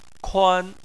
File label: "kuan1", Chinese